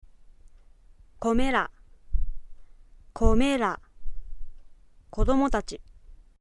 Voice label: kodomotati, aizuben

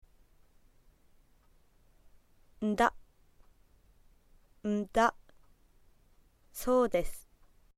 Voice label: soudesu, aizuben

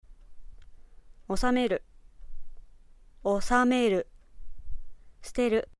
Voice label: suteru, aizuben

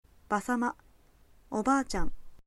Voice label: obaasan; aizuben

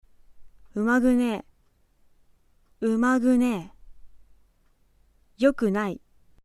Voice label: yokunai, aizuben

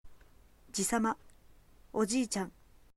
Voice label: ojiisan, aizuben

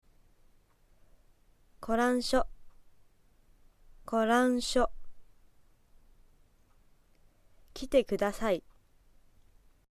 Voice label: kitekudasai, aizuben

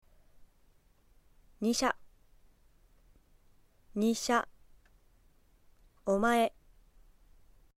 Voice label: omae, aizuben

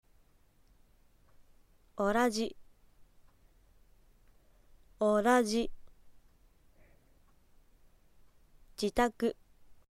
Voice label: jitaku, aizuben

